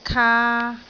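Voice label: "kaa3", Cantonese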